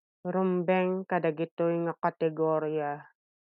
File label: Ilocano; fit into these categories - rumbeng kadagitoy nga kategorya